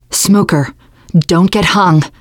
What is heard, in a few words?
heard smoker, teen girl; player; survivor; voice